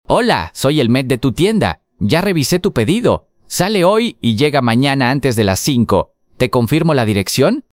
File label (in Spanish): adulto informado